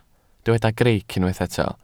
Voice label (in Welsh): "greic", Welsh